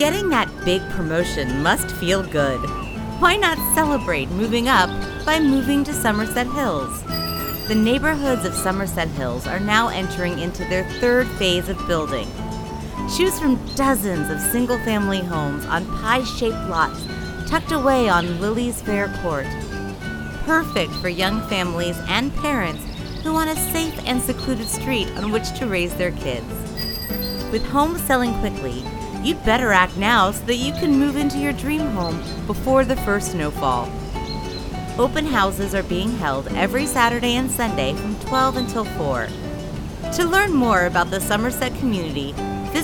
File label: commercial; summerset